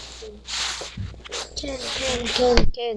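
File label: "can", spoken word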